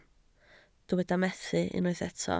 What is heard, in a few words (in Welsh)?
"methu", Welsh